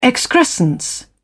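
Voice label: "excrescence", British English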